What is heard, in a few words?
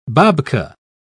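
"babka", British English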